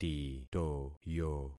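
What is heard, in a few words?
"titoyo", concatenated syllables; Affixing experiment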